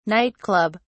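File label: "night club", English, British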